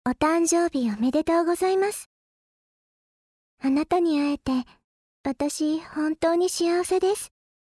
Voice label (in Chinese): 闹铃声, 生日快乐♪能遇到你，是我的幸福♪